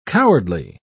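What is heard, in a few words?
"cowardly", British English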